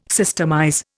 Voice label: "systemize", Indian

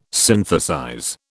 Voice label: "synthesize", British

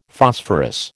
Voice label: "Phosphorus", American

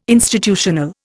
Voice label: "institutional", Indian

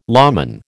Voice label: "lawman", American

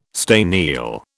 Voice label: "staniel", British

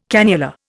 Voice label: "cannula", Indian

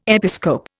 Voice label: "episcope", Indian

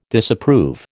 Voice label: "Disapprove", American

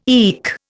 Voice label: "eke", Indian

